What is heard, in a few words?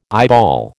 "eyeball", American